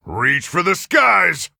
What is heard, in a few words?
Human Emote Threaten; Reno Jackson